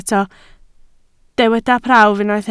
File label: "prawf", Welsh